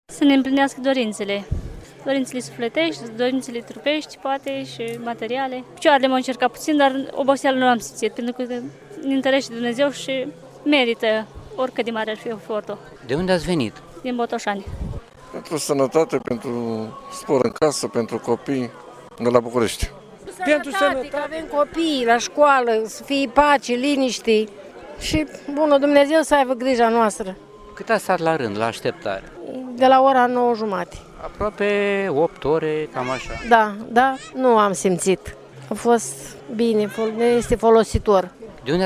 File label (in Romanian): vox pop pelerini